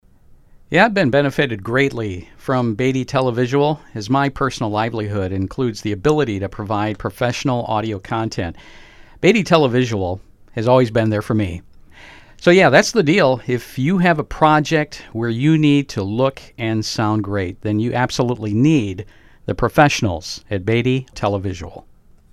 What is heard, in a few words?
Testimonial